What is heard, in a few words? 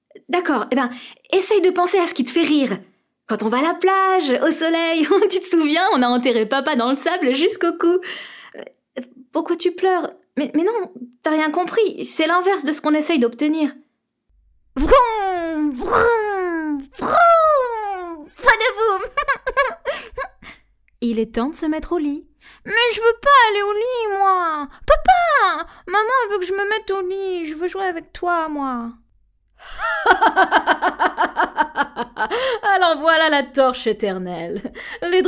ANIMATION French